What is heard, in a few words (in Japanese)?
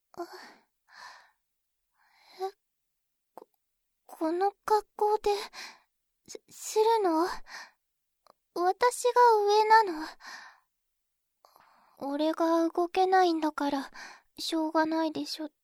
ボイスのみ